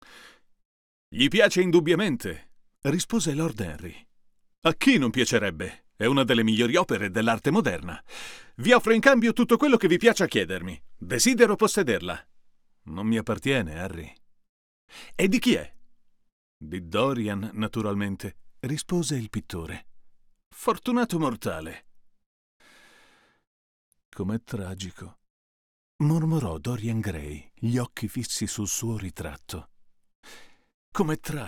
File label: TLM67 sound U87; TLM67VSU87Ai